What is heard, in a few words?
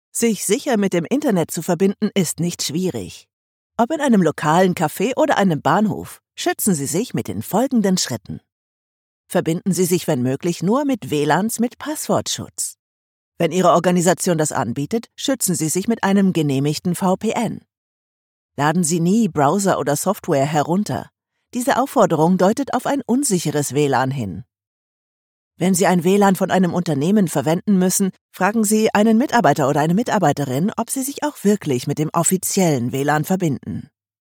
elearning; Sprecher in Berlin, Dresden, Leipzig, Meißen; Muttersprache Deutsch, Französisch